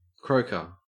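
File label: "croaker", Australian English; pronunciations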